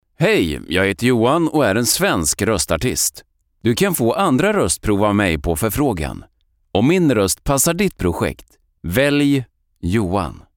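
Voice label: Swedish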